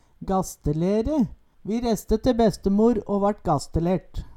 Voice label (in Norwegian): "gastelere", Numedalsmål; Vi reste te bestemor å vart gastelert